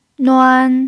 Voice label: "nuan1", Mandarin pinyin